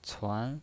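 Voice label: "cuan", Mandarin Chinese pinyin voice, second tone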